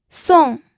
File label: "song4", pinyin